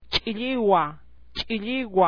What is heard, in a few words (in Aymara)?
"chilliwa", Aymara